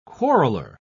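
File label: "quarreler", English, British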